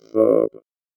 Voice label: VOICEFX ELCTR 140; Techno2